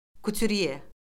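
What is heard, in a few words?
"kuturye", Azerbaijani; Orfoqrafiya Lüğəti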